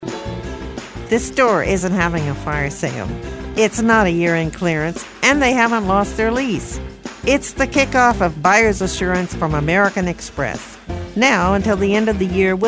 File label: upbeat